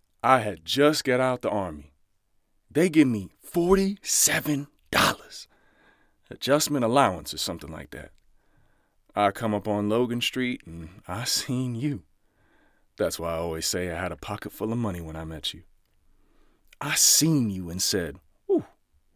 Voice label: Drama, 30s

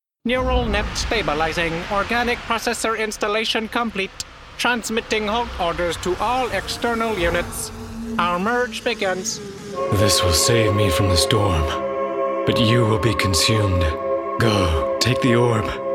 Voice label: Animation

